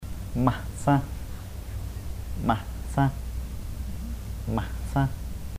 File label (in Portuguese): pessoa, kotiria